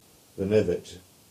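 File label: "Lanivet" English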